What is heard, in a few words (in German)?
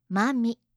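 "mami", japanische Mädchennamen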